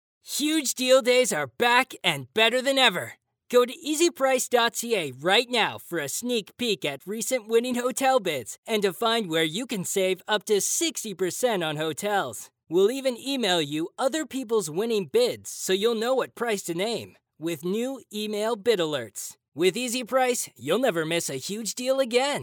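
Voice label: Canadian English, male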